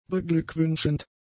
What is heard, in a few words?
"beglückwünschend", German; adjectives